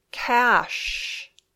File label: "cash", English